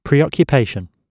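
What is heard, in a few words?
"preoccupation", British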